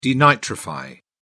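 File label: "denitrify", British English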